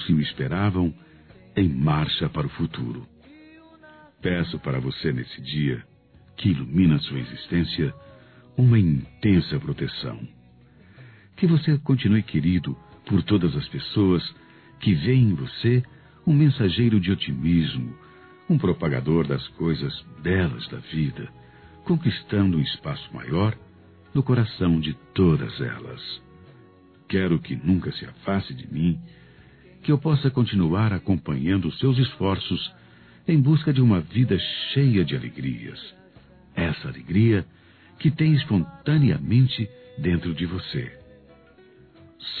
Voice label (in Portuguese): Aniversário Neto Masculino